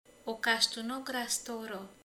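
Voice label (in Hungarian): "hintaló", Hungarian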